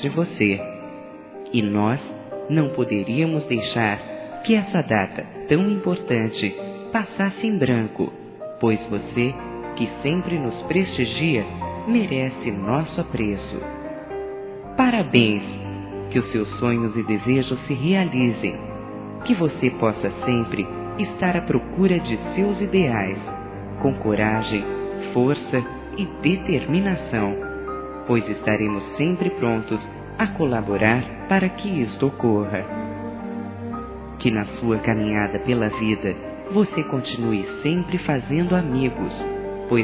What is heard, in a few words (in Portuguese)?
ANIV. CLIENTE-F, Telemensagens Empresarial; fem; Telemensagens 2017